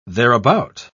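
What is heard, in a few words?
"thereabout", British English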